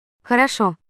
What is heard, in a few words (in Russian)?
siri horosho; imac